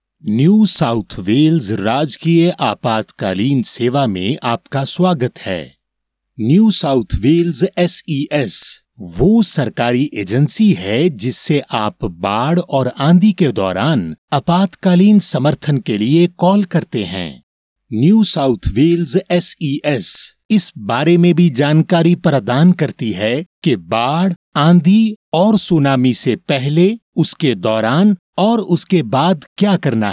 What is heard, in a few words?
hindi